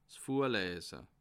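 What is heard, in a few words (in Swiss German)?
"vorlese", Alsatian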